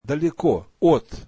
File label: russian far from